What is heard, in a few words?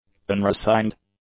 "unresigned", english; adjectives